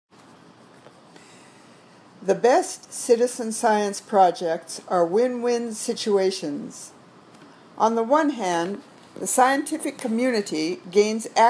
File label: Reading Drill